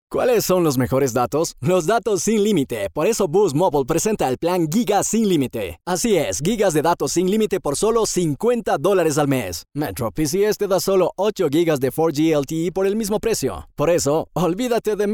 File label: Spanish Neutral